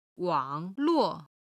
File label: "wang3luo4", chinese